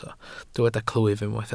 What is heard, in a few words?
"clwyf", Welsh